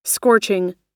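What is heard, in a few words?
"scorching", American English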